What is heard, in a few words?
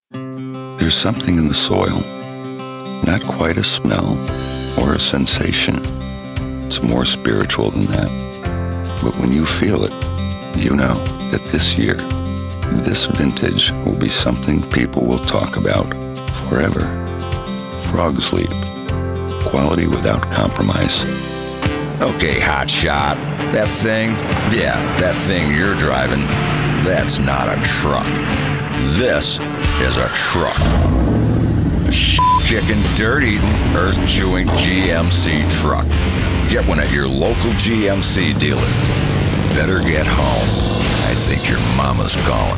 Commercial